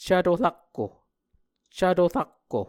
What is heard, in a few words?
"cvto rakko", muscogee; A Dictionary of Creek/Muskogee; SIL International